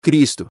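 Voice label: "Cristo", Portuguese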